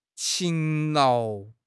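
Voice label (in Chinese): "勤劳", Chinese; HSK6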